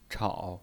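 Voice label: "chao", Mandarin Chinese, third tone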